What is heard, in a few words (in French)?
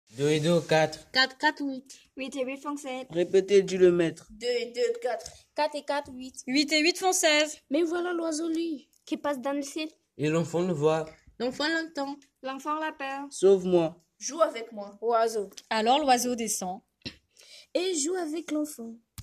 page d ecriture plusieurs voix